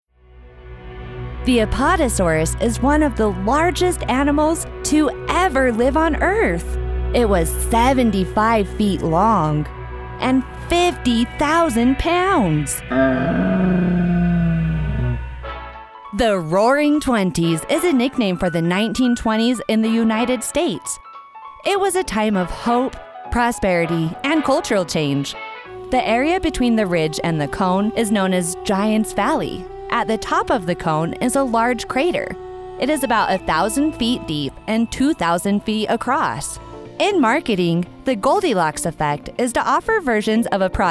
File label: K-12 e-Learning